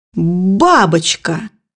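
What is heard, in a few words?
"butterfly", Russian; bukvar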